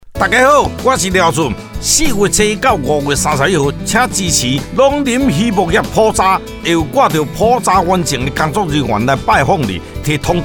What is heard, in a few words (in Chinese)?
閩南語版; 放心篇(30秒); 農林漁牧業普查